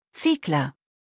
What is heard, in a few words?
"Ziegler", deutsch nachnamen